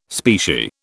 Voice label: "specie", British

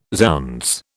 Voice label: "zounds", British

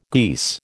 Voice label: "geese", American

